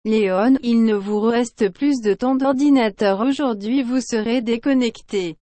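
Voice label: speech sample, French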